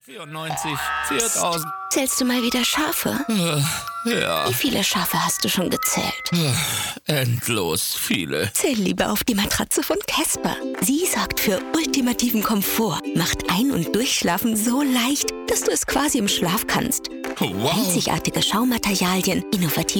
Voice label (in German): Werbung neckisch sanft kurz; casper